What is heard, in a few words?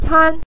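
"can" neutral tone, Mandarin Chinese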